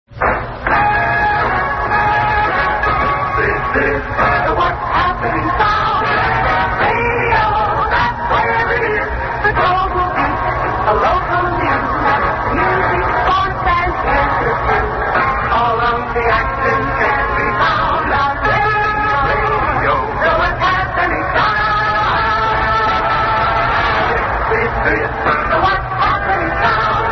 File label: Vintage Commercials Radio Promo